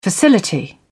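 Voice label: "facility", British English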